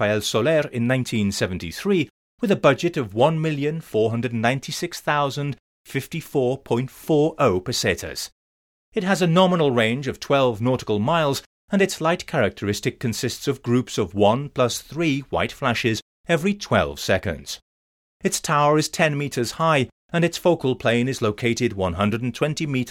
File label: lighthouse audioguide; la mola